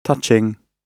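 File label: "touching", British English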